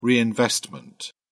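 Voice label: "reinvestment", British English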